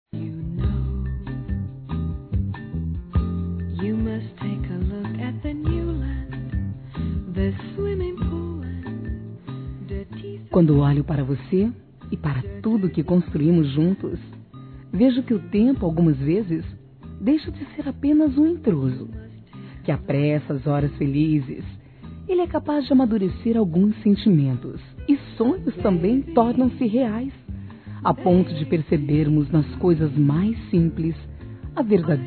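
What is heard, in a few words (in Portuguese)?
ROMANTICA CASAL, Feminino; Telemensagens; Românticas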